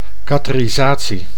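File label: "catherisatie", Dutch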